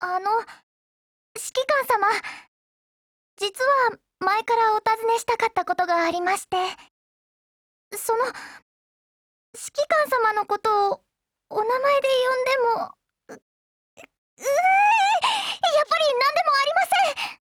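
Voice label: dialogue wedding, Japanese; Danuvia43M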